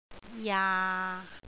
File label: "jaa6", cantonese